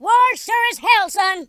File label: "missed", Drill Sergeant; speech